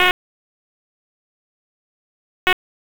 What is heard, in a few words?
Police North District Tac 1, p25; Raleigh; wake